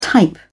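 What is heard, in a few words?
"type", English, British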